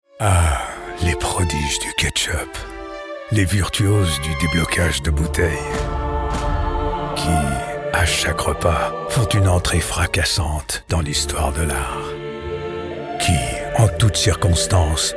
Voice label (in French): FR; voix-off